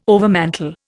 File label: "overmantel", Indian